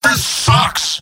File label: scout jeers; mvm